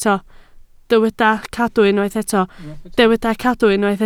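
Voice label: "cadw" Welsh, spoken word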